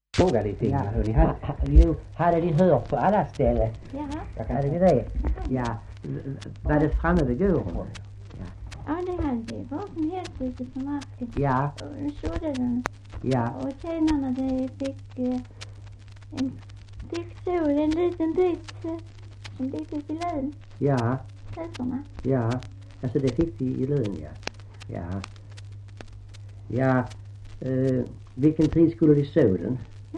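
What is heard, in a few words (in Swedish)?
Äspö Skåne, Svenska sagor dialekter; Folkminnen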